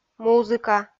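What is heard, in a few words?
"музыка" Komi, female; kpv audio dict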